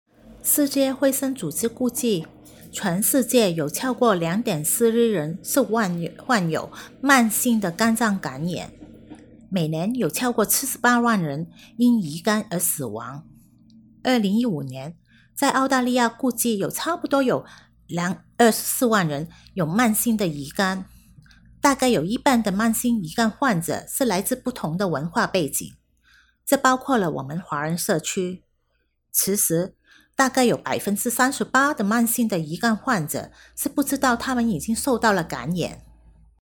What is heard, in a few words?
Chinese; hepatitis